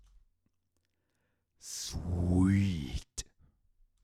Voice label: Announcer